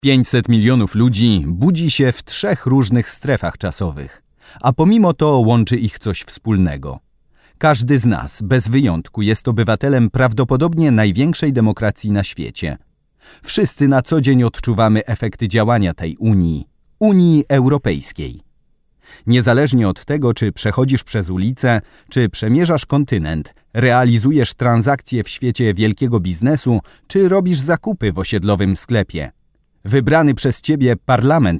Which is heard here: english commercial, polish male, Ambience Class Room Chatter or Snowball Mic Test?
polish male